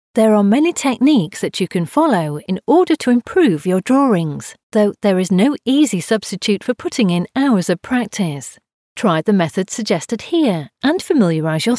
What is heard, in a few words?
english british narration